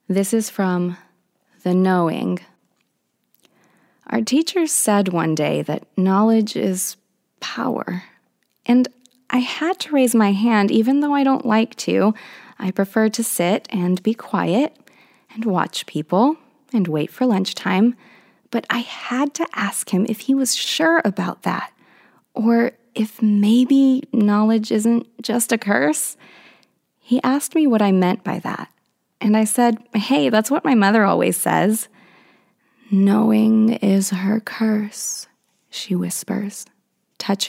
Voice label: Narration Sample